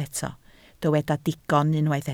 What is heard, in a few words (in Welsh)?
"digon" Welsh